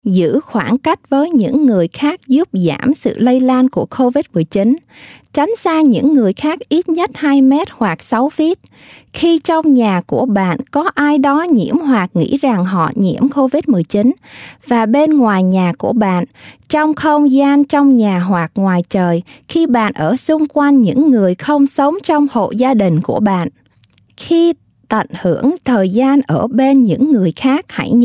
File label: PSA Vietnamese Key Times to Practice Social Distancing; CDC Covid; coronavirus 2019 ncov